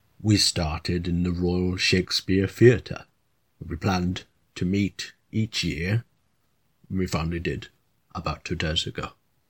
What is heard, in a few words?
Character; Sir Ian McKellen